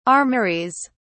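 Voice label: "armouries", British English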